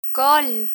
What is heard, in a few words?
"col", Spanish; rules gender termination; learn spanish